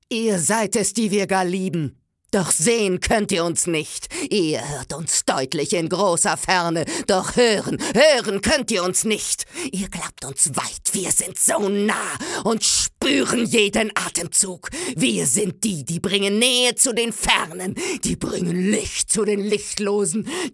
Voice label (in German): aggressiv; sprecher; frauen